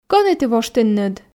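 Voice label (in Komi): кӧні ті воштінныд, Komi, female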